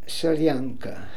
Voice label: "Solianka", pronounciations; Fourth Way; Beelzebub

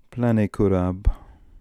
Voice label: "Planekurab", pronounciations; Fourth Way; Beelzebub

